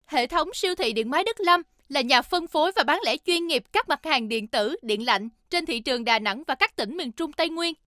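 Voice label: Vietnamese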